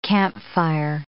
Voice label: "campfire", English